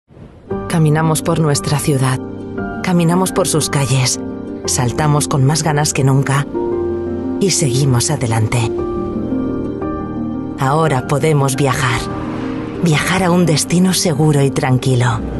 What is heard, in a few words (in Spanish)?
Femenino